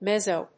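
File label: "mezzo", English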